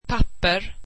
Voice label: swedish paper